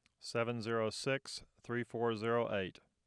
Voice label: clean, digits; IBM